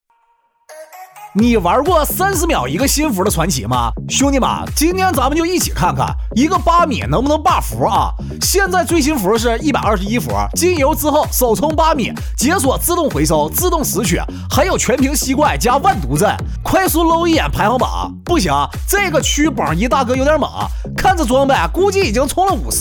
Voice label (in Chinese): 信息流东北话